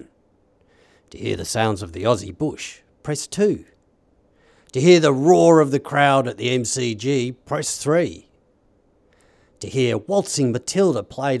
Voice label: homesick voice options voiceover